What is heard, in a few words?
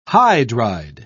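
"hydride", British English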